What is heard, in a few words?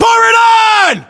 US order action boost, battlechatter, english